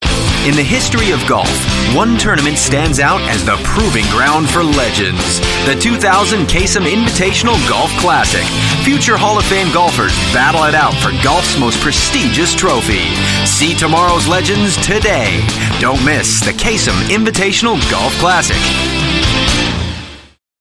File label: US English Male; Invitation Golf Classic